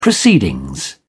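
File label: "proceedings", English, British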